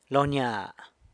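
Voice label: "lo nya", field, San Lucas Quiaviní Zapotec; e-poss.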